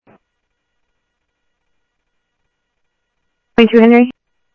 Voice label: Sheriff, scanner audio; SoutheastCenMesa; MCSO - EAST; Sheriff-EastCnty